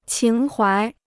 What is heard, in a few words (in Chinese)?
"情怀", Chinese